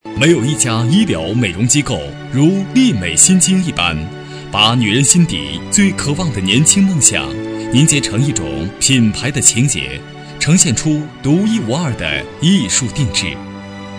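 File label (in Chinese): 美容机构, 男声; 丽美美容机构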